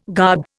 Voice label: "garb", Indian